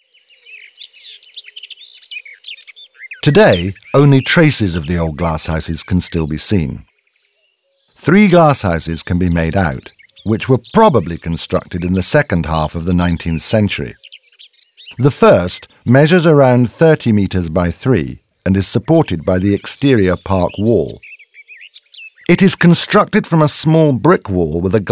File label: audioguide en enfant; modave